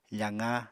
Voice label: "faqa", Apatani